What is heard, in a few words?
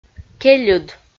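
"кельыд", Komi, female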